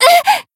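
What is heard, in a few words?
Voice damaged Japanese; channon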